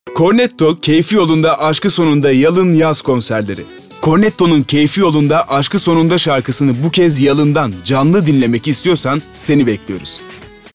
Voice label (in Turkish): Neseli